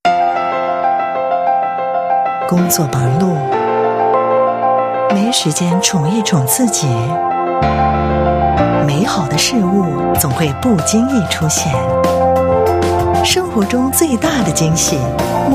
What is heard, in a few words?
Mand; Bluunis